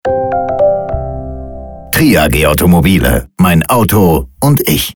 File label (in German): Sound Logo Voice; TRI AG